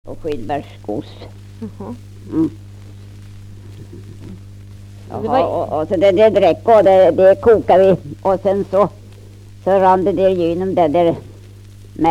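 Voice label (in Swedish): Lerbäck Närke, dialekter, Svenska sagor; Folkminnen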